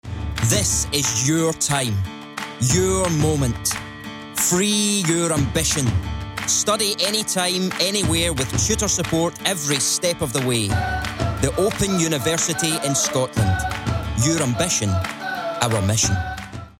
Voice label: Commercial; Open University

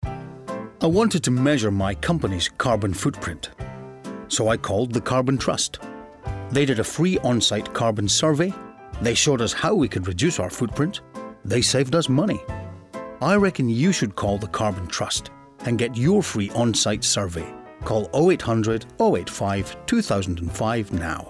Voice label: Commercial; Carbon Trust

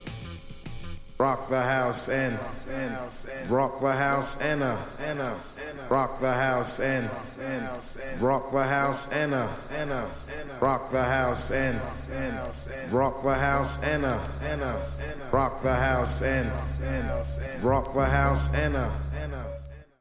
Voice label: Vocals

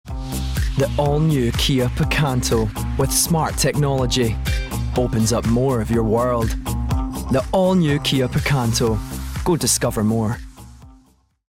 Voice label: Commercial; Kia

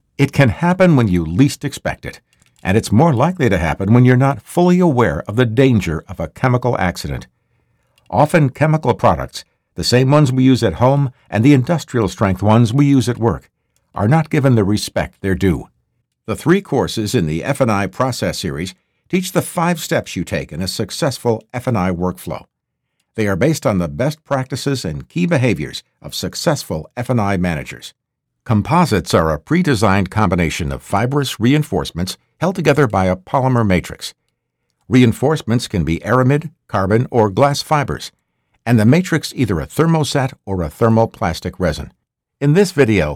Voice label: E-Learning

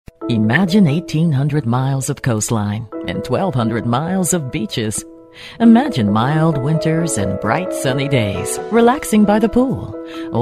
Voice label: tourism promos

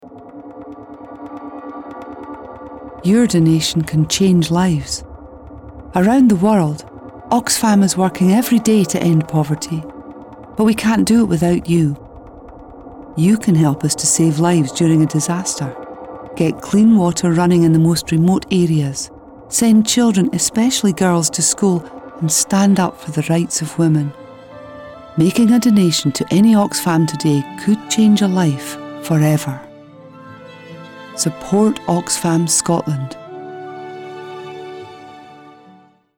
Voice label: Commercial; Oxfam

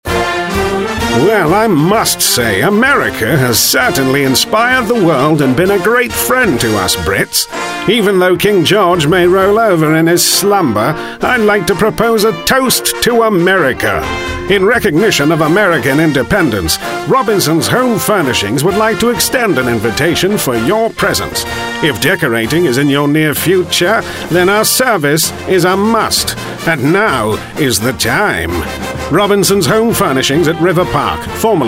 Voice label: Advert; Robinson's House Furnishing

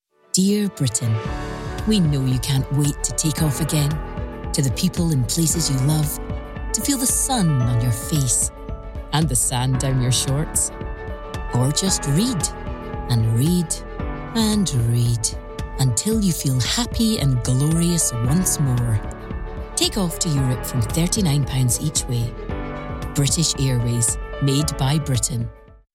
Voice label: Commercial; British Airways

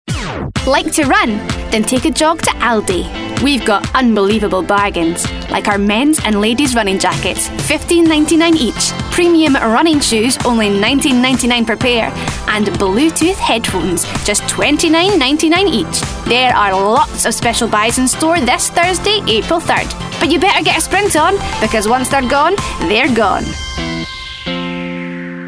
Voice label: Commercial; Aldi

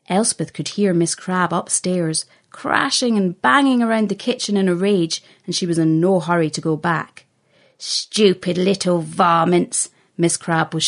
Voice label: Children's Audio Book Sample

